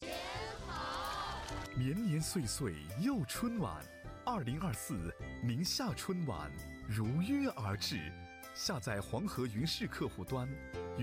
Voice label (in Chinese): 男 跨年晚会; 宁夏2024跨年晚会